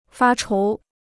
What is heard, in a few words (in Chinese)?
"发愁", Chinese